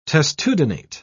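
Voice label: "testudinate", English, British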